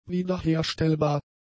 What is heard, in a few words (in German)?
"wiederherstellbar", German; adjectives